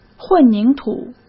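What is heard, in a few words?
"混凝土", Mandarin Chinese; chinese flashcards; hsk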